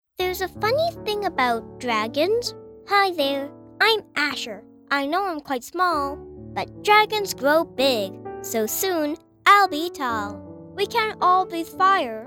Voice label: Video Promo

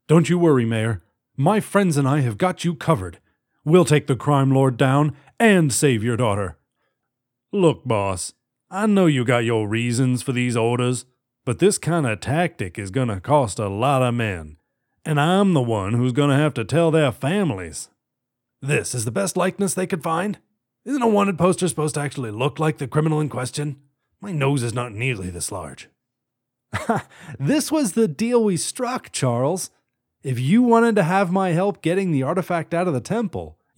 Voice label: US English characters

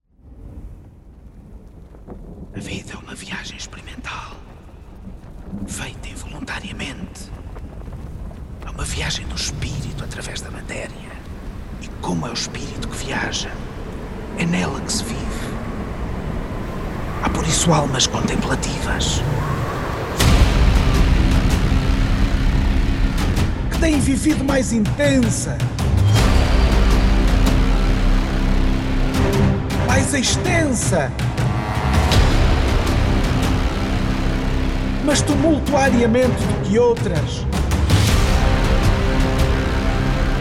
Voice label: Intro Voice

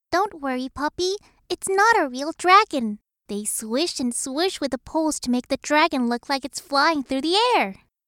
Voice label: Child Voice

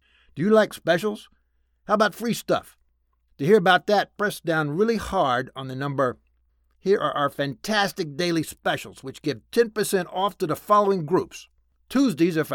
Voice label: Pretzels IVR; LeBon

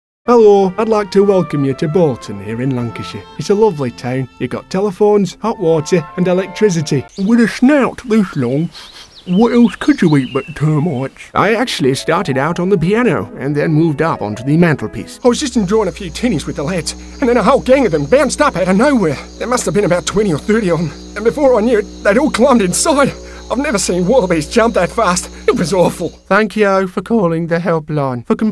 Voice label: Character

